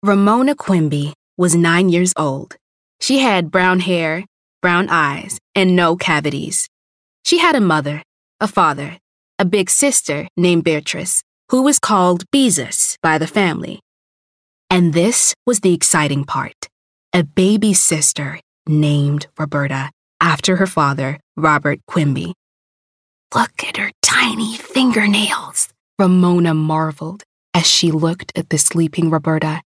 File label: Character